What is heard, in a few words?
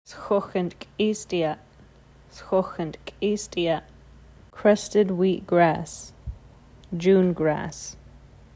sx̌əx̌ntk̓ist̓iyaʔ, Nsyilxen Plant Names; crested wheat grass June Grass